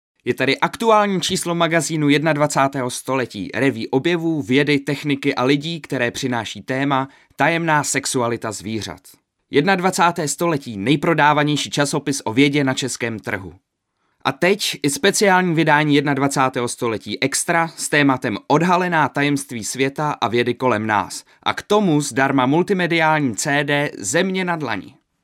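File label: male Czech documentary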